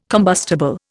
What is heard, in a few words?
"combustible", Indian